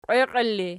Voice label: "qweqiela", Archi